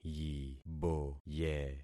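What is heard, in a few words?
"yiboye", concatenated syllables; Affixing experiment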